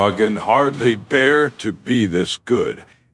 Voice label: happy; fertig classic games